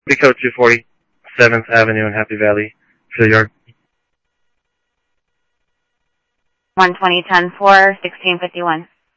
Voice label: scanner audio

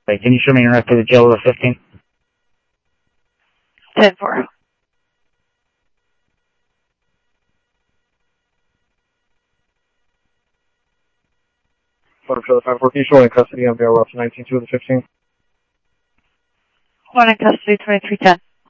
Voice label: South Scottsdale Sheriff, scanner audio

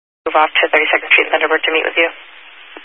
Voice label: Highway Patrol, Phoenix Metro East Area, scanner

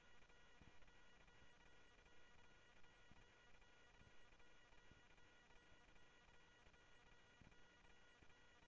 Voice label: Security, scanner audio; Thompson Peak Hi; G-S Security

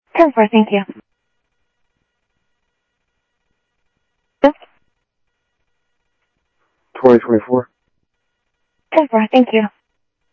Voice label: Sheriff, scanner audio

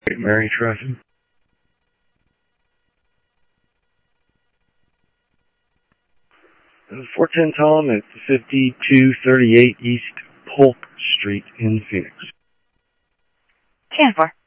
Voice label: Central Sheriff Central, scanner audio; MCSO Central; ThompsonPeakScot

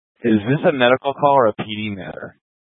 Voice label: Fire Dispatch

